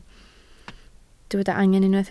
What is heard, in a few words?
"angen", Welsh